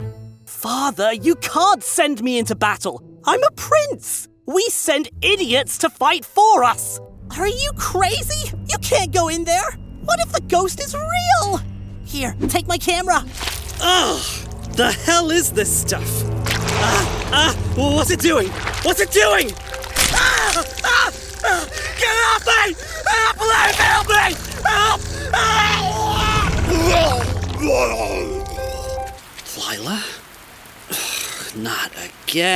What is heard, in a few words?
Animation, en-US; standard us